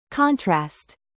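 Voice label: "bass", noun, British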